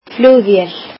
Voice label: "airplane", Icelandic